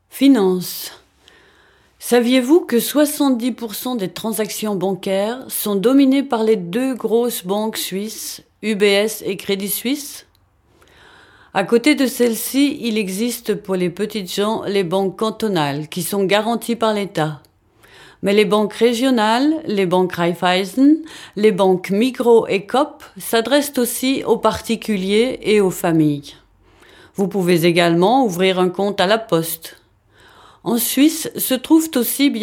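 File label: French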